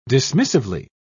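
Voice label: "dismissively", English, British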